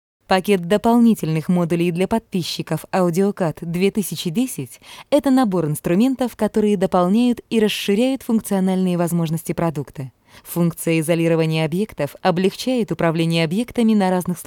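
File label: Russian narration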